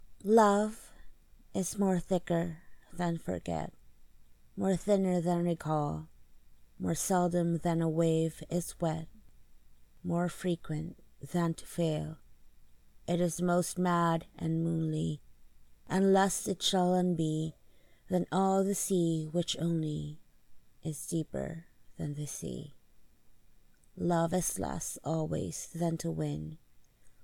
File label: F, Poem; love is more thicker than forget; Poem by e.e. cummings; 28 Days of Love Poetry